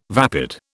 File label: "vapid", British